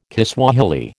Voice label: "Kiswahili", American

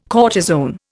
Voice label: "cortisone", Indian

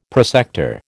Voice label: "prosector", American

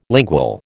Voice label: "lingual", American

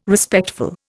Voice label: "Respectful", Indian

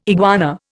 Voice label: "iguana", Indian

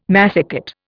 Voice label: "massicot", Indian

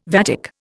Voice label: "vatic", Indian

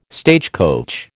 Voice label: "stagecoach", American

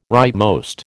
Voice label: "rightmost", American

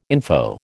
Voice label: "info", American

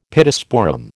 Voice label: "Pittosporum", American

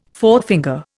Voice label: "forefinger", Indian

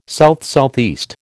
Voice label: "SSE", American

